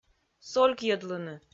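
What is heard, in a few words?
"солькйӧдлыны", Komi, female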